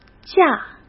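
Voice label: "价", Mandarin Chinese; chinese-flashcards; hsk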